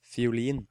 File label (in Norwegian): "Fiolin", Norwegian